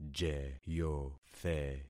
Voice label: "jeyothe", concat syllables; Affixing experiment